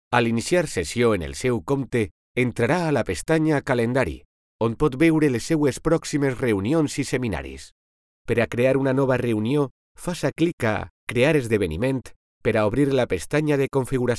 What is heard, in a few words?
catalan e-learning